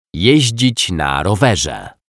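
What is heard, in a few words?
"jezdzic na rowerze", Polish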